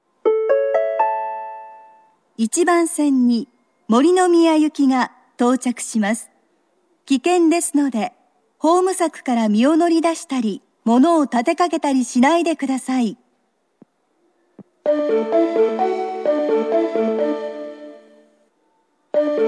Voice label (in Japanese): 森ノ宮行き, 中央線車内放送・駅放送; 当駅止(2番線); 森ノ宮行き2